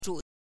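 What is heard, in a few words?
"zhu", Mandarin Chinese, neutral tone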